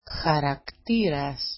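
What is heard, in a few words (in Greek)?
"xaraktiras", Greek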